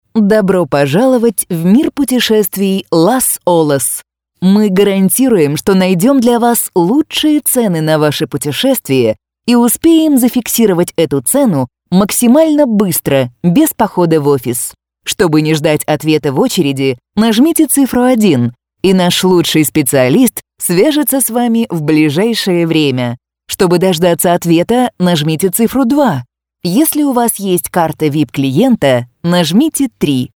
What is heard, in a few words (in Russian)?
пример автоответчика